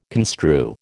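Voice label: "construe", American English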